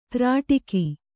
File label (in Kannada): "ತ್ರಾಟಕ", Kannada